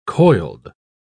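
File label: "coiled", British English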